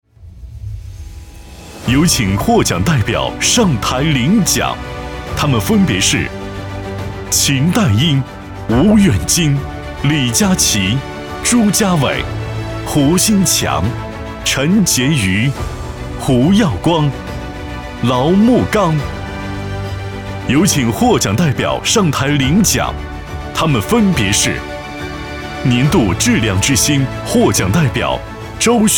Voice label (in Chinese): 男, 颁奖; 获奖代表